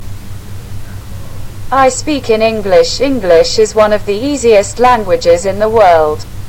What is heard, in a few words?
ENGLISH